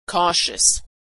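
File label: "cautious", American English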